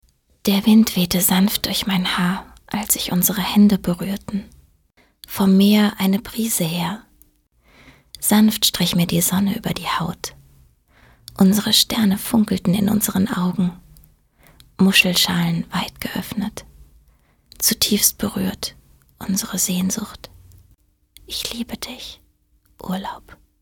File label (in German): Schauspiel Sanft